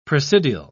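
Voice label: "presidial", British English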